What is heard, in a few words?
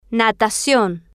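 "na-ta-cion", Spanish, female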